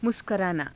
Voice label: "muskaraanaa", Hindi